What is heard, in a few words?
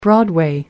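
"broadway", British English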